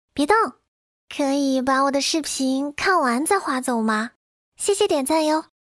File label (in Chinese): 短视频开头结尾; 抖音等